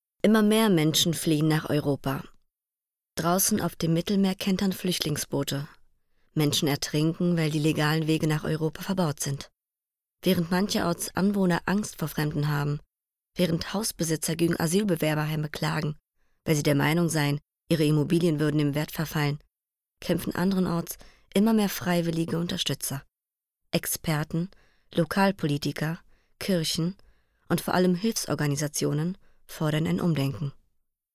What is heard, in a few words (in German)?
DE Nachrichten